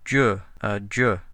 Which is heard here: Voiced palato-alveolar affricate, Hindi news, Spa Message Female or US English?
Voiced palato-alveolar affricate